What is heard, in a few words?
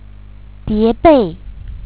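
"die2bei4", Chinese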